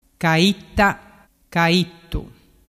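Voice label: "caittacaittu", Vernazzese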